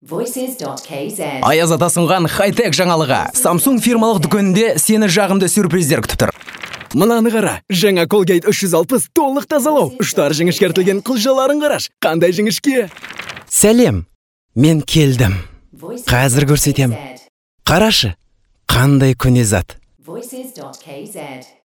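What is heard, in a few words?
Kazakh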